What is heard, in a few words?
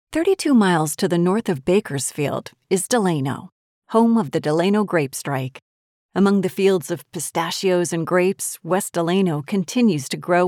english american real estate; Timeless, sophisticated